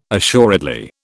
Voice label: "assuredly", British